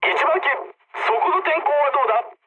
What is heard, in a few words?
jp chat, speech jp